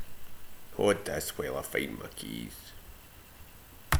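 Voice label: "haud", slang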